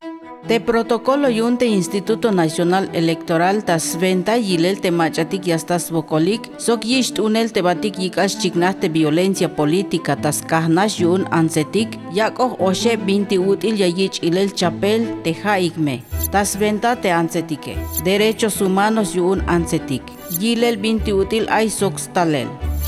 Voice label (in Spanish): TZELTAL